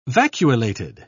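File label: "vacuolated", British English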